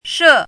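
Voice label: "she4", Mandarin Chinese syllable, pinyin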